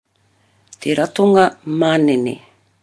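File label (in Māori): "te ratonga manene", Māori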